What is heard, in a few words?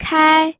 "kai1", pinyin